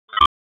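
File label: Police District Tactical, p25; wake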